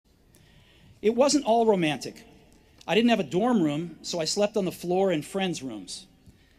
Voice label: Commencement Address; Steve Jobs; 2005; Stanford